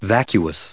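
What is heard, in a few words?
"vacuous" English, US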